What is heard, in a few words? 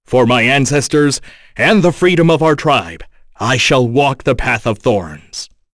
vox, en; kingsraid; voices heroes